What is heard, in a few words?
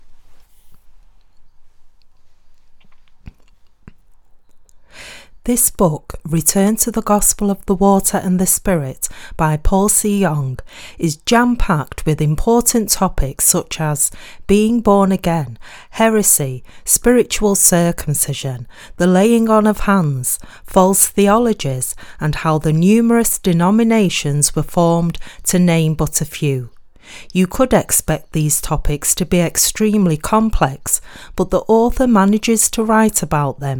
English, UK; UK 90s